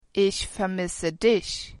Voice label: i miss you, german